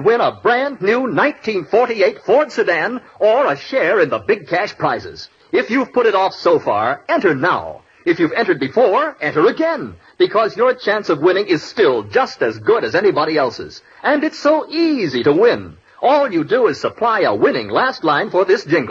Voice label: Dutch Cleanser, Old Radio Ads; Win a new 1948 Ford Sedan.